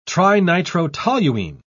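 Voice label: "trinitrotoluene", British English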